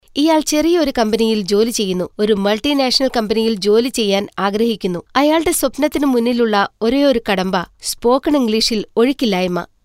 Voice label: Malayalam female

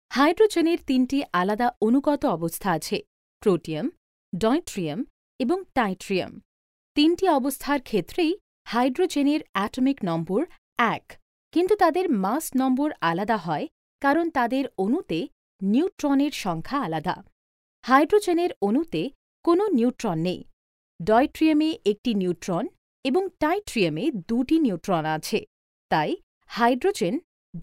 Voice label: Bengali Female

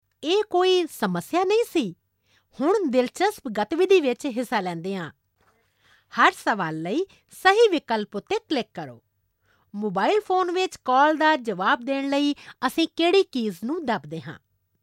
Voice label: Punjabi female

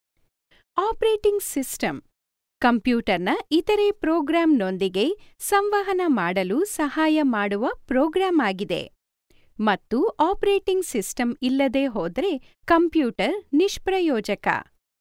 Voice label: KANNADA FEMALE

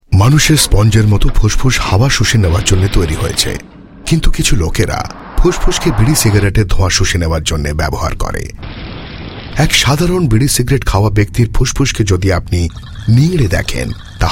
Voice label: BENGALI M

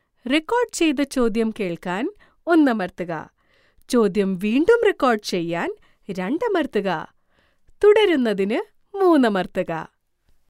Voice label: Malayalam female

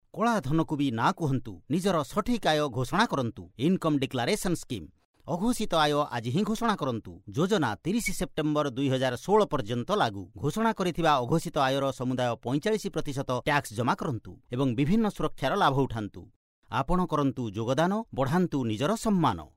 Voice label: ORIYA MALE

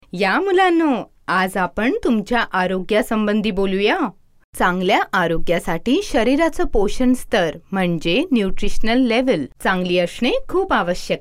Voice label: MARATHI FEMALE

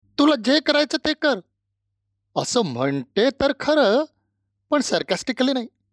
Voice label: MARATHI MALE

